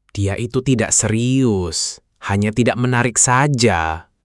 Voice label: indonesia, negative phrases

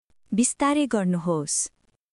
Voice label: Nepali, basic phrases

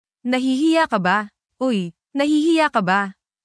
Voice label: tagalog filipino, negative phrases; slander and gossip

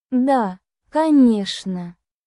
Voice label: russian phrases

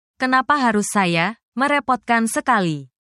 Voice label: indonesia, indonesian negative phrases

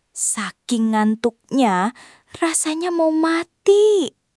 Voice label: indonesian phrases

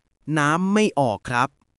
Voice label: Thai, basic Thai phrases

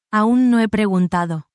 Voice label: spanish, spanish phrases

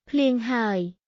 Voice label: Khmer, basic khmer cambodian everyday

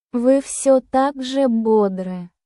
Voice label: russia, russian; ロシア語; 日常で使うフレーズ

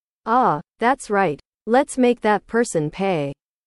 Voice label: english

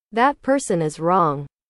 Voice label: english

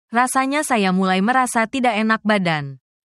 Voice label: indonesia, negative phrases

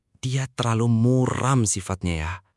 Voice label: indonesia, indonesian negative phrases

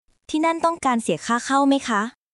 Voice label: Thai, basic thai phrases

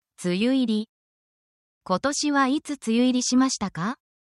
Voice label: japanese calendar event talk

